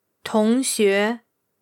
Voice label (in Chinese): "tong2 xue2", Chinese; tóngxué, classmate; tones